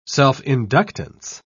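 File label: "self-inductance", British English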